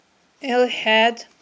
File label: "элхIяд", aghul